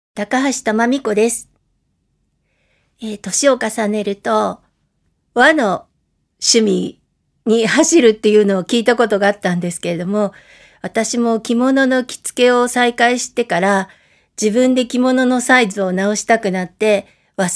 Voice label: freetalk, female voice